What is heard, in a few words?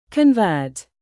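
"produce", verb, British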